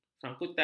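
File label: "sangkutan", Malay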